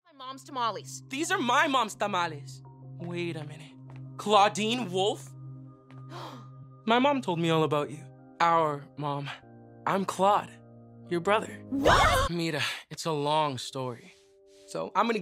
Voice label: animation men, voices men

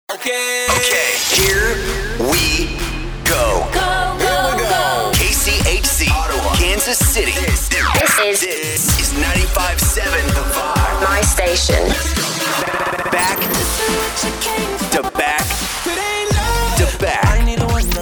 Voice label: radio chr; radio imaging

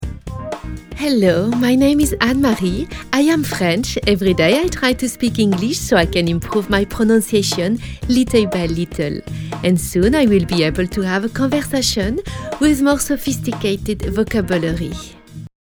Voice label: female french accent

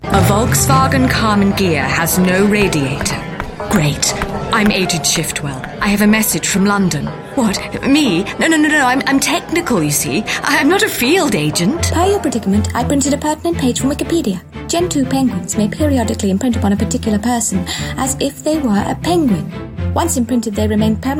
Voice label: languages british

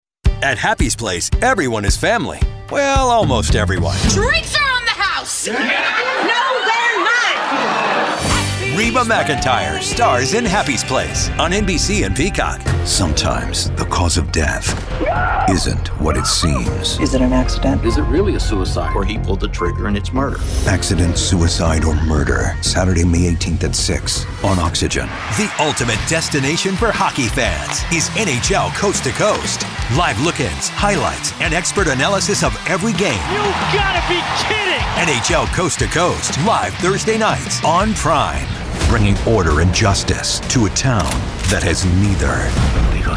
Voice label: promo men

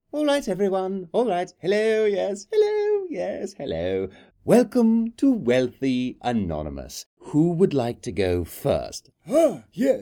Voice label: animation, men

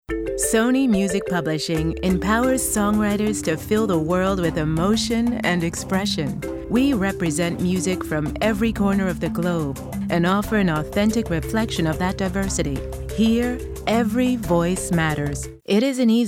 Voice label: narration women

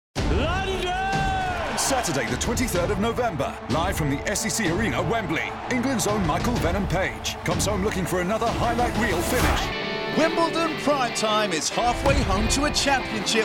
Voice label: promo men

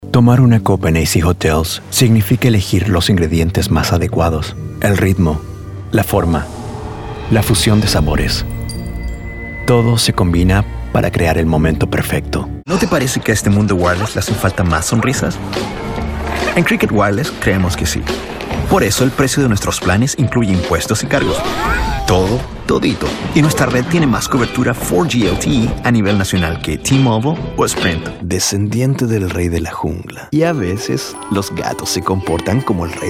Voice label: languages men spanish

